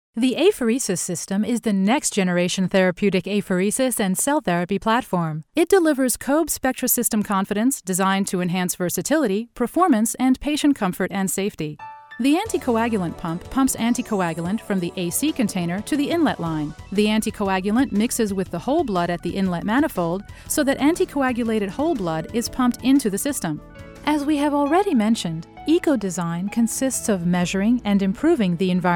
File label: English Narration